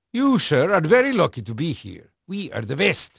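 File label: Dutch male, voice; Pirates Of The Caribbean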